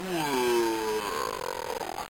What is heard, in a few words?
enemy death, bitcrushed voice one shots